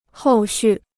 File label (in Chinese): "后续", Chinese